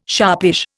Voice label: "sharpish", Indian

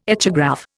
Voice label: "echograph", Indian

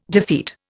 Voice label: "defeat", Indian

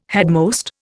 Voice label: "headmost", Indian

